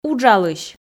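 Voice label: "уджалысь", Komi, female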